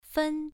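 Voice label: "fen", Mandarin Chinese, first tone, pronunciation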